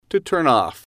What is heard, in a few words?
"to turn off", English